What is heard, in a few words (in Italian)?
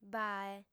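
"bae", lingua sarda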